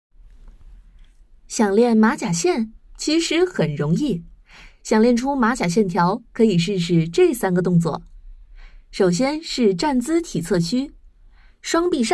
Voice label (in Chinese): 科普短片试音